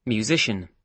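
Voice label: "musician", British English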